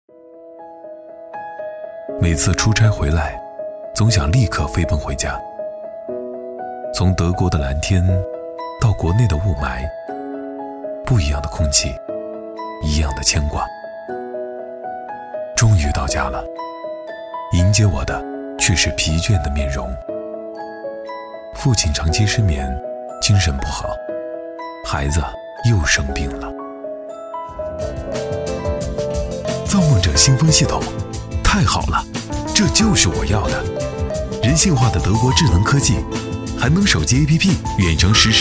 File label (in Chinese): 男 广告 新风系统 旁白风格, 暖声; 造梦者